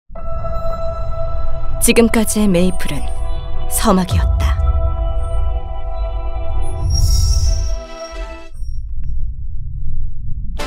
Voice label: 광고 긴장감있는; 메이플스토리

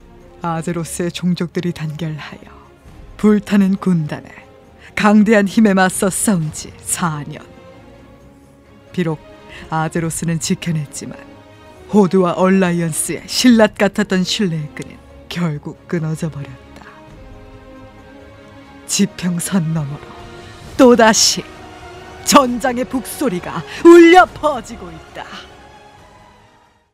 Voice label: 멘트 웅장한 무거운 중저음 게임

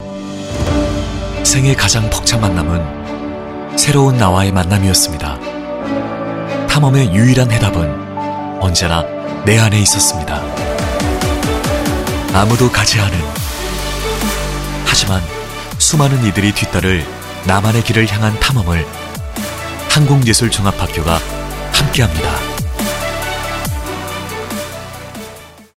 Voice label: 성우 내레이션 감성적인 느낌; 한국예술종합학교

